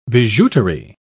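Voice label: "bijouterie", British English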